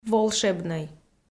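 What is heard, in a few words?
"волшебнӧй", Komi, female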